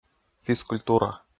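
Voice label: "физкультура", Komi, male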